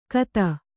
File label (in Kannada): "ಖತ", kannada